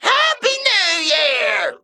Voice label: Male Mech Emote HAPPY NEW YEAR

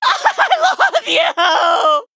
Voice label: Non-binary Night Elf Attack

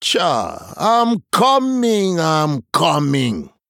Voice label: Male Troll Time

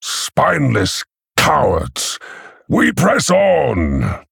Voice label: Male Orc; Guldan

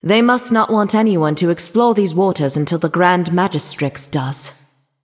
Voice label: Female Nightborne; Story Faelin Mission1 Exchange E

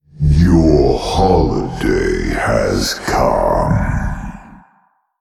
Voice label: Male Old God happy holidays

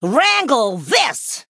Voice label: Female Human Attack

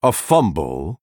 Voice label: Male Night Elf Oops

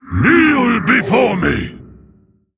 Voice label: Male Mogu Threaten

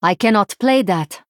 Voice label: Female Draenei Error Play

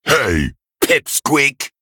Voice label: Male Ogre Attack

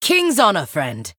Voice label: Female Human Play

